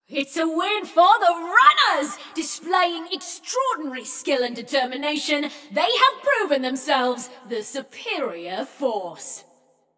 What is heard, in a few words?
Runners Win, Announcer; Flux; Scraprun